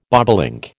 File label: "bobolink", American